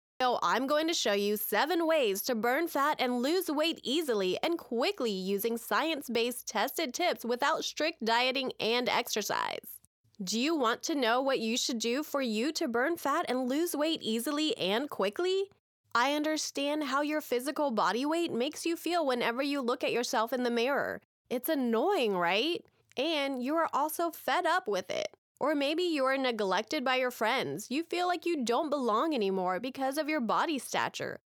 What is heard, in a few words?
English (US), Female